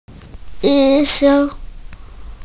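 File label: "yi1sheng1", Mandarin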